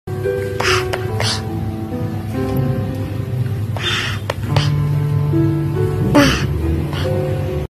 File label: baby saying daddy